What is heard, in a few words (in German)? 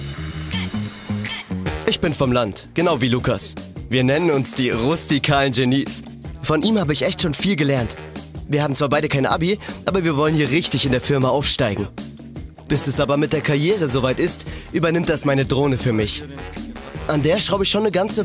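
Imagefilm Azubi, Sprecher, Männer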